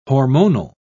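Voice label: "hormonal", British English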